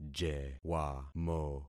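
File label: "jewamo", concatenated syllables; Affixing experiment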